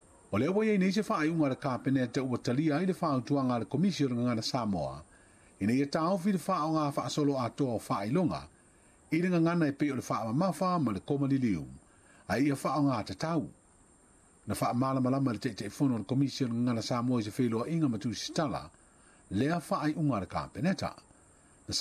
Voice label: samoan; Faaaogaina o faailoga ile Gagana Samoa; SBS Samoan

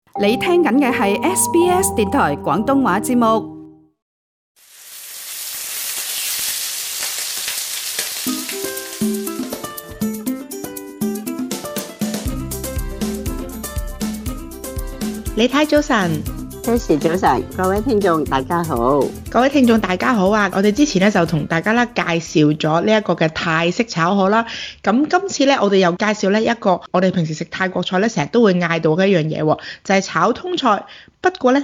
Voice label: cantonese; 【好食到識飛】飛天炒通菜; 美食速遞; SBS Chinese

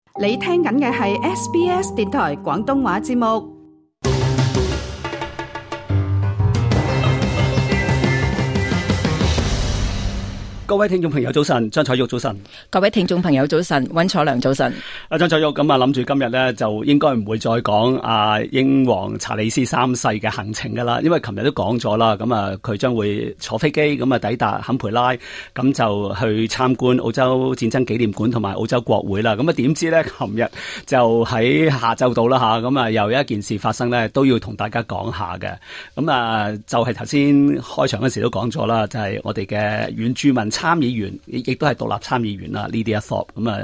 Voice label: cantonese; 索普參議員向國王查理斯咆哮 「你不是我的國王」; 澳洲原住民獨立參議員索普 (Lidia Thorpe) 在英國查理斯三世國王和王后卡米拉面前，大聲叫囂，表示查理斯不是她的國王，打斷了儀式的進行。; SBS Chinese

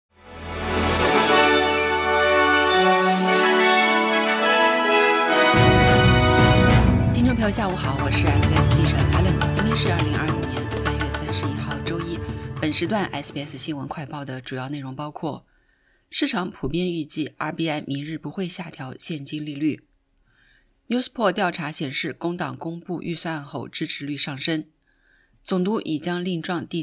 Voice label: mandarin newsflash; 市场普遍预计RBA明日不会下调现金利率; SBS Chinese; SBS新闻快报

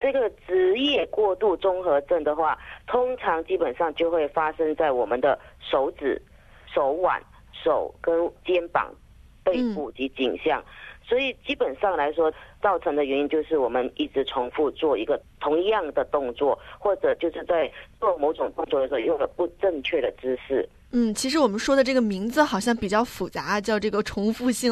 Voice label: mandarin; SBS 普通话电台; 健康顾问：职业病 - 重复性劳动损伤

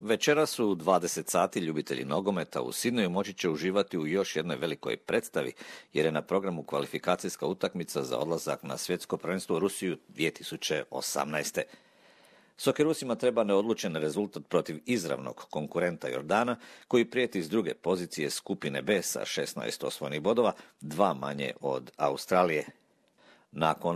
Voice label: croatian

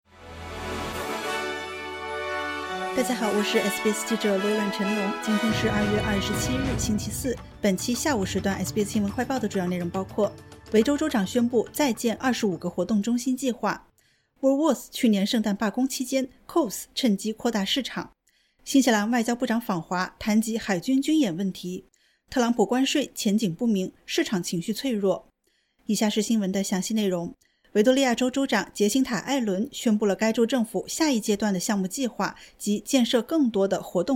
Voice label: mandarin news flash; 维州州长宣布再建25个活动中心计划; SBS Chinese; SBS新闻快报

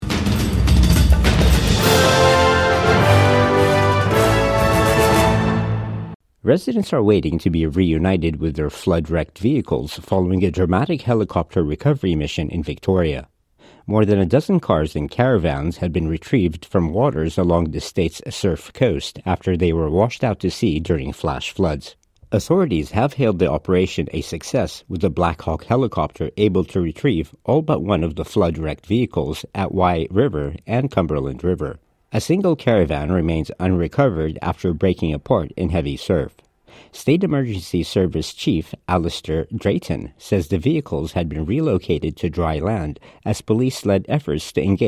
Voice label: english; Helicopter mission retrieves flood-wrecked cars washed out to sea on Victoria’s Surf Coast; SBS News

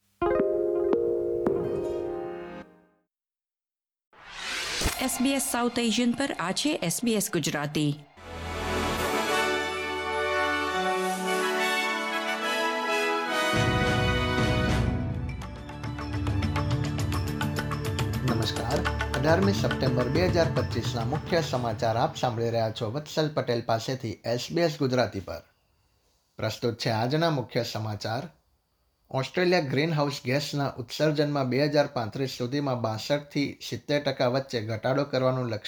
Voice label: gujarati news bulletin; SBS Gujarati

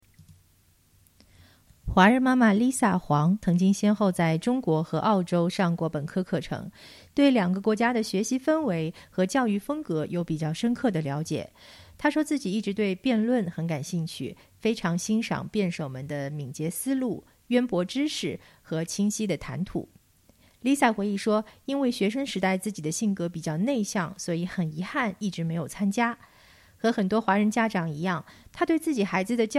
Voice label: mandarin; 辯論培訓逐漸興起，華人家庭應試觀念髮生轉變; SBS Chinese

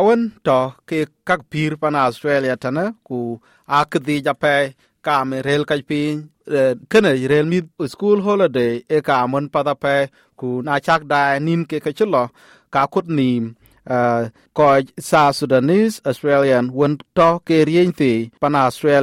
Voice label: dinka, podcast; Thuëc kura Basketball tɛn riënythii.; SBS Dinka

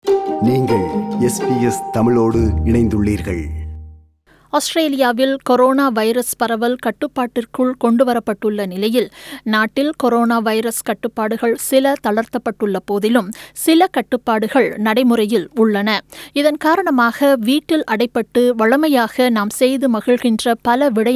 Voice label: tamil; SBS Tamil; Retraining yourself during COVID-19

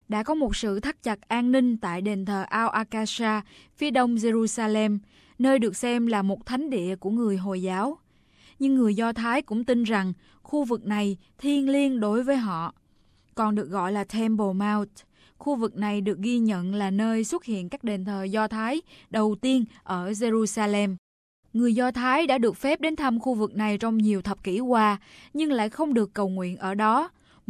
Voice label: vietnamese

